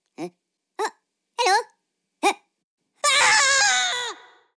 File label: minion hello